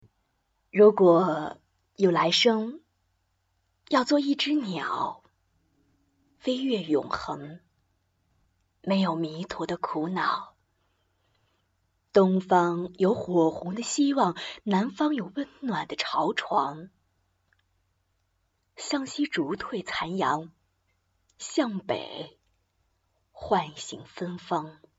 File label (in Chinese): 情感